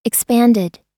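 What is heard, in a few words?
"expanded", American English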